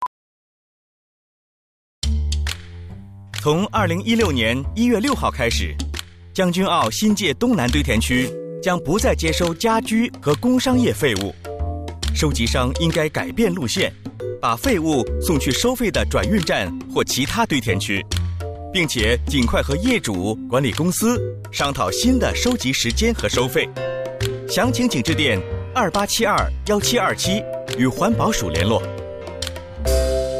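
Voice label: radio Mandarin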